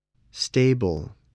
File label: "stable", voice